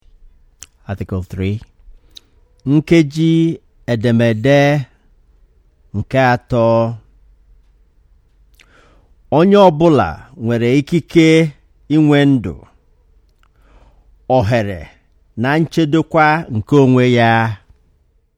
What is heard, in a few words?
Igbo